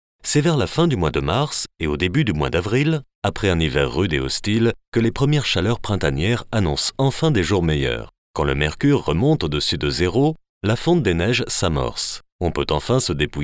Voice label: french narration

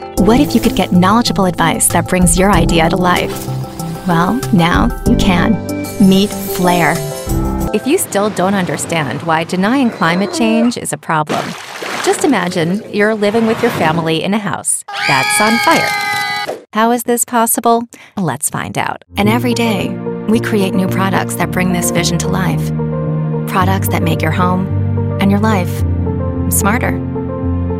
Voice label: english american narration

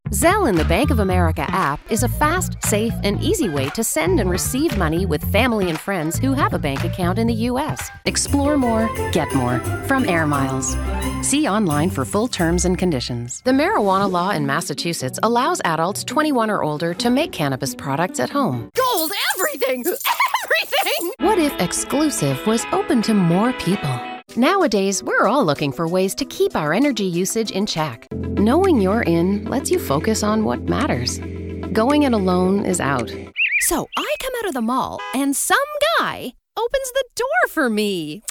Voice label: English Canadian commercial; Eu gravo no meu estúdio em casa com um microfone Sennheiser 416

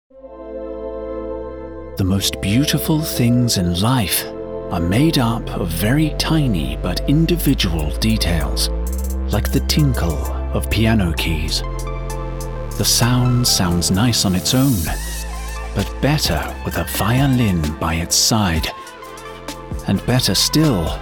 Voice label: english british automotive; UK voice actor

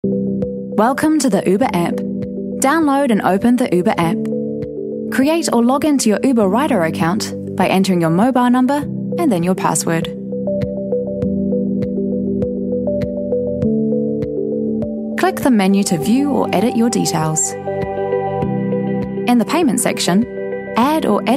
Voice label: english new zealand e-learning

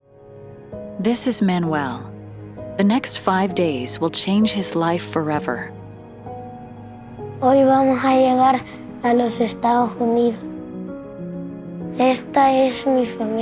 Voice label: english american documentaries; Synchronsprecherin; Heimstudio in Chattanooga

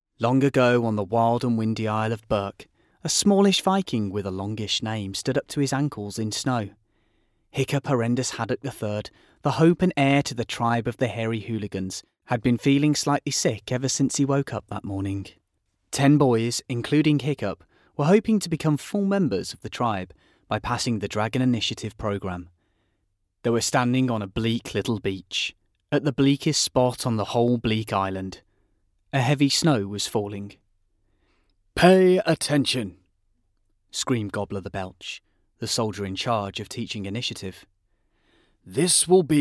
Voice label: english british audiobooks

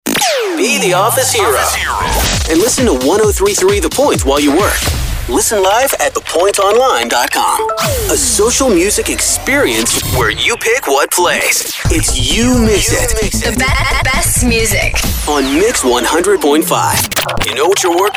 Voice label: english american radio imaging

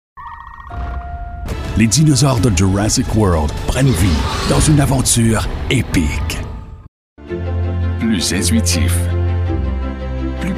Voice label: french canadian commercial

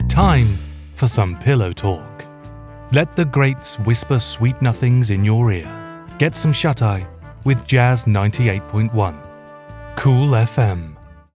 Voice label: English British promos

